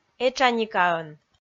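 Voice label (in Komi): "этшаникаӧн", Komi, female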